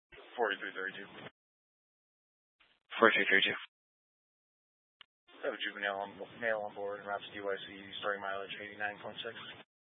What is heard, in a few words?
Mesa County Sheriff Dispatch